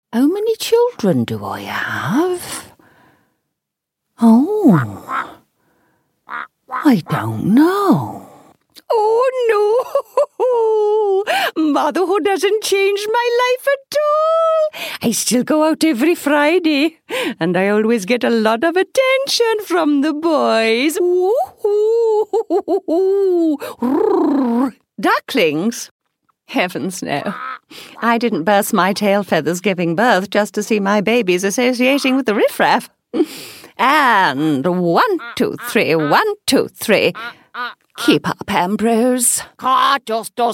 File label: animation silly old bird